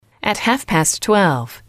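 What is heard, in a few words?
at Half Past Twelve, english, female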